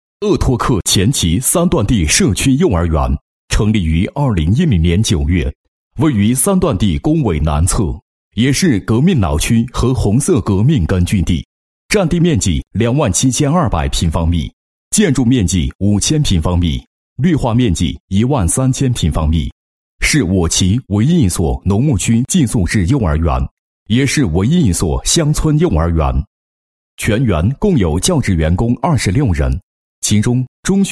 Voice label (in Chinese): 男 学校专题 幼儿园宣传介绍; 红色教育和谐发展